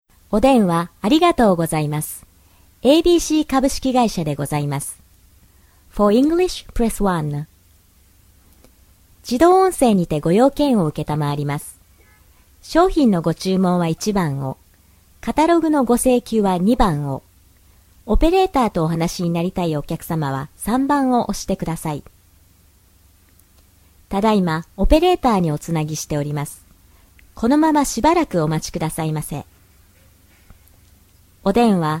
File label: VO Phone Answering